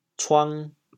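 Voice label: "cuang2", Teochew, chaoyang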